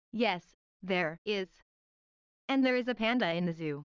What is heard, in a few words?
fast